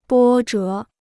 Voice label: "波折", Chinese

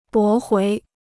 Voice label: "驳回", Chinese

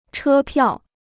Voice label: "车票", Chinese

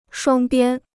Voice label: "双边", Chinese

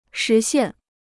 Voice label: "实现", Chinese

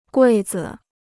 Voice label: "柜子", Chinese

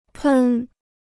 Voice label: "喷", Chinese

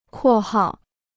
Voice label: "括号", Chinese

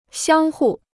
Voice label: "相互", Chinese

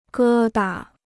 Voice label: "疙瘩", Chinese

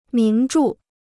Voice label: "名著", Chinese

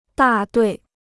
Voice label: "大队", Chinese

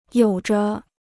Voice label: "有着", Chinese

